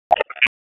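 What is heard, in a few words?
Raleigh Police Special Operations Tactical, p25; wake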